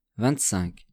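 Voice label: "vingt-cinq", French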